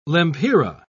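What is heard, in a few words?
"lempira", British English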